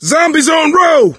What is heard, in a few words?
hunter pounced, voice; Left 4 Dead 2; coach